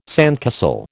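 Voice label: "sandcastle", American English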